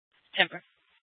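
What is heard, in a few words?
Police Department North Dispatch, scanner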